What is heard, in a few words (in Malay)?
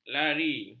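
"lari", Malay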